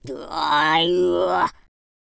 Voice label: angry; speech

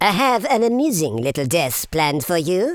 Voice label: bummer, Super Villain; speech

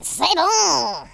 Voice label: "excellent", French; speech

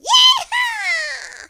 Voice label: "fatality", Cowgirl; speech

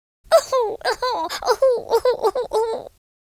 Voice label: sad; speech

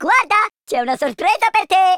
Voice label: watch this, speech Italian

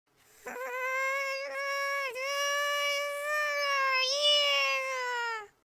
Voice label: angry, Nagging Wife

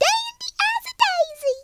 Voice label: brilliant, Southern Belle; speech

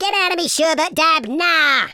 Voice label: "just you wait", London Cabbie; speech

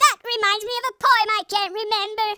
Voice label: "perfect", Grandpa

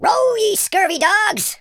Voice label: boring, Pirate

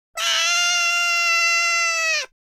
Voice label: scared, speech, Madchester

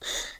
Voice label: jump, Cowgirl; speech

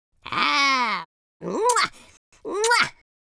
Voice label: happy

